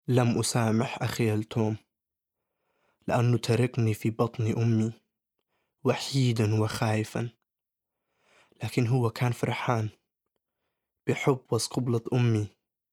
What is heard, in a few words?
Arabic